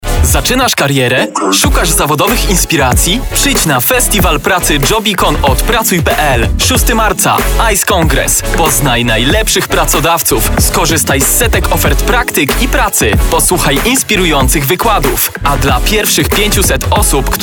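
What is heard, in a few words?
Polish, male, commercial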